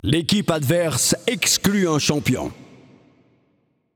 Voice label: Announcer French Draft Enemy Ban